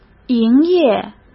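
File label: "营业", Mandarin Chinese; hsk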